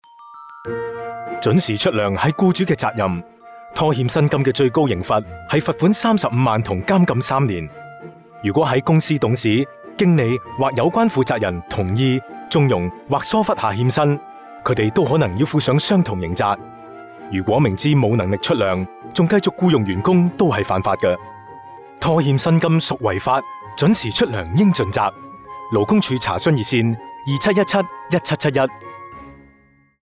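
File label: Cantonese; 拖欠薪金屬違法 準時出糧應盡責; 粵語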